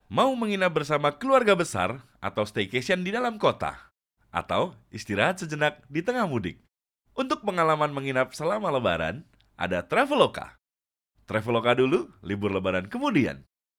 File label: CASUAL INDO